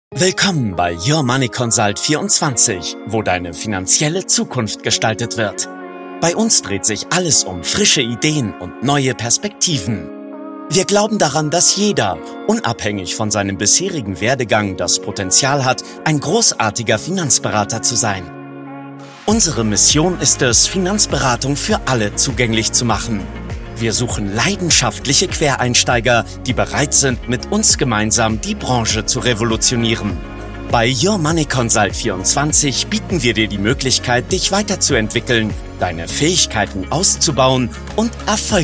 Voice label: Recruiting sachlich motivierend

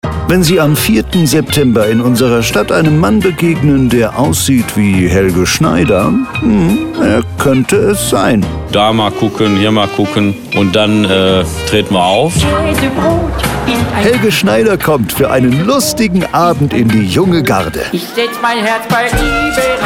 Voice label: Neutral; Aust Konzerte

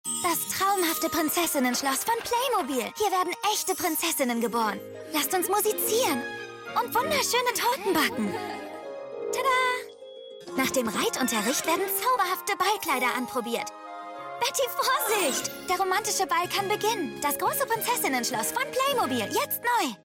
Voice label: Grosses Prinzessinnenschloss TV Spot; PLAYMOBIL

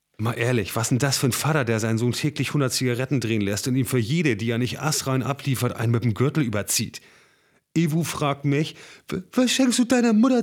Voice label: Hörbuch norddeutsch

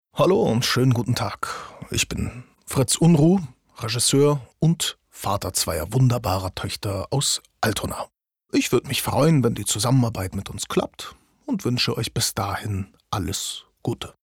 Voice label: Vorstellung, German

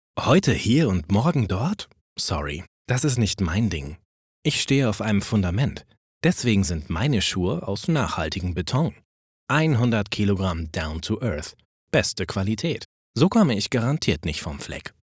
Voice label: Werblich; Fundament

